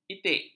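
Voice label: "itik", Malay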